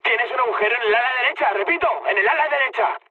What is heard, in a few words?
right wing, mood high, speech; War Thunder